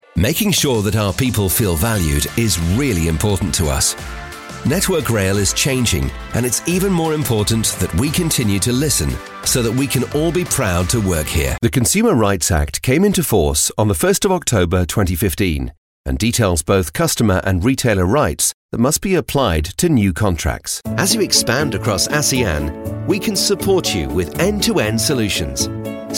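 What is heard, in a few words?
corporativo, British English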